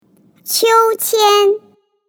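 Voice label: MAN swing, Chinese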